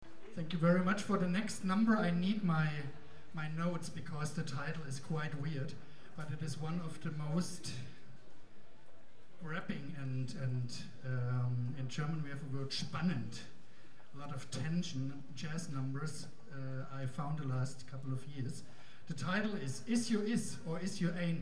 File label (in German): Ansage